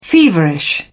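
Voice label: "feverish", English, British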